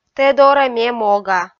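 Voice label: "тэ дорӧ ме мога", Komi, female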